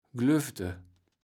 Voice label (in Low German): "glövde", kaevels platt